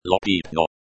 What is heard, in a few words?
"lo pipno", Lojban